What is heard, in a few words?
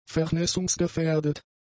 "vernässungsgefährdet", German; adjectives